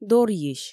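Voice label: "дорйысь", Komi, female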